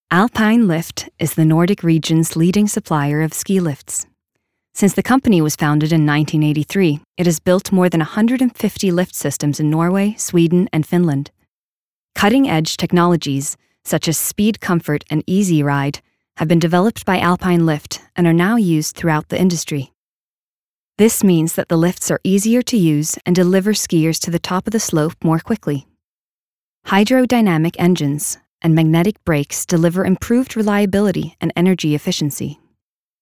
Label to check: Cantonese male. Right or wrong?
Wrong